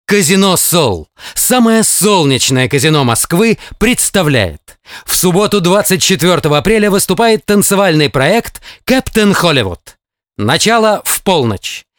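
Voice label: Russian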